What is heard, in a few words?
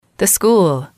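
the school, female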